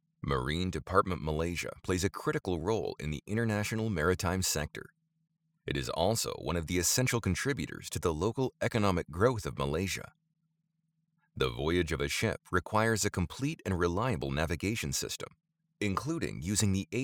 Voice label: english voice over product video promotion